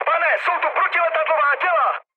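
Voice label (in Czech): Czech damaged anti-aircraft, mood medium; speech; War Thunder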